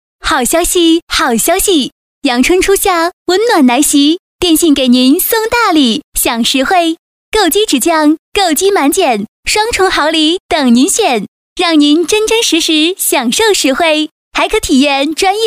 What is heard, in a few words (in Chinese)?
女 促销